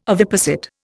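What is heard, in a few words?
"oviposit", Indian English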